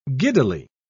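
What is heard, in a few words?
"giddily", British English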